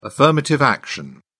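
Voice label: "affirmative action", English, uk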